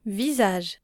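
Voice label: "visage", French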